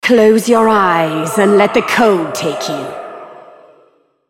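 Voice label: taunt, English; Lissandra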